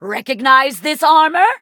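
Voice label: battle, English; cookie run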